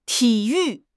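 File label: "ti3 yu4", Chinese; HSK; HSK3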